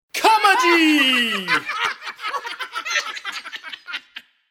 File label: Comedy spoken in male voice with cartoon group laughter; Cartoon Voices